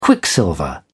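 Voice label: "quicksilver", British English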